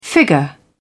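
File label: "figure", English, British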